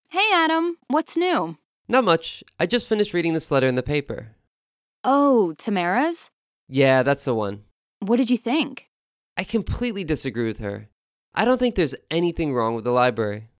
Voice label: student conversation; integrated question